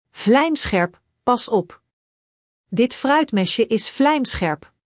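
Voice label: "vlijmscherp", Dutch; Spelling Gr6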